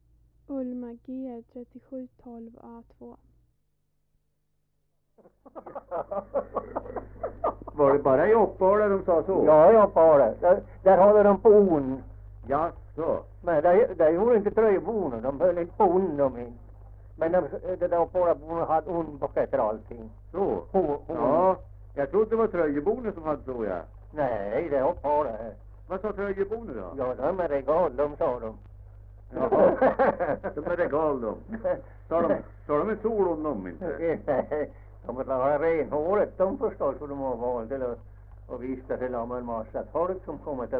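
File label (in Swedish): Hille Gästrikland, Svenska sagor, dialekter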